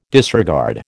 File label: "disregard", American